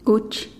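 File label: "kuch", Teotitlán del Valle Zapotec; pig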